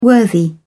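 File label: "worthy", British English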